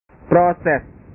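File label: "proses", Indonesian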